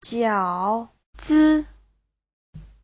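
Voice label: "jiǎozi", Mandarin Chinese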